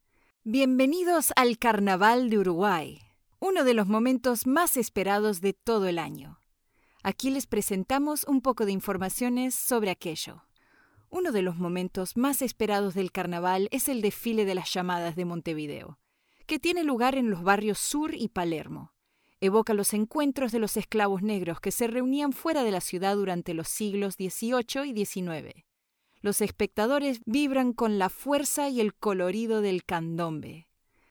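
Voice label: Spanish Latin America female voice over, upbeat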